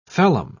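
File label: "phellem", British English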